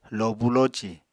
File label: "lobu loci", Apatani